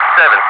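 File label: "7", English speech, Wingman Digits; DCS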